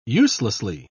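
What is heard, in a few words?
"uselessly", British English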